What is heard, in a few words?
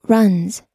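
"runs", American English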